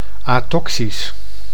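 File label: "atoxisch", Dutch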